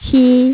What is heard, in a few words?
"3" female mandarin